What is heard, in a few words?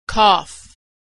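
"cough", American English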